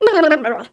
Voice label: voice kid critical